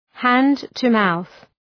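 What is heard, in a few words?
"hand-to-mouth", English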